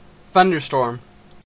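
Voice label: "thunderstorm", Canadian English; pronunciations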